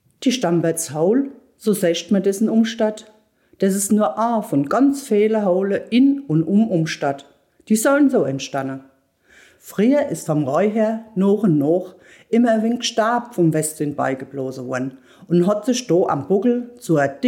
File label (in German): Mundart; Steinbornshohl